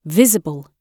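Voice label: "visible", British English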